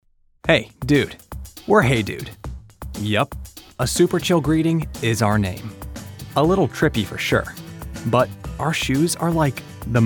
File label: Music Mix